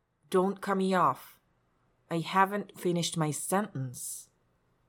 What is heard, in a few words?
glottal t